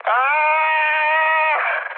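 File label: Hungarian damaged pilot mood high, speech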